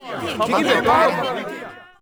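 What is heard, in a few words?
hobbit murmur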